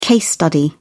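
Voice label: "case study", British English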